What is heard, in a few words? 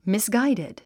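"misguided", English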